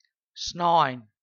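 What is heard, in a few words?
"snein", sunday, zondag, Frisian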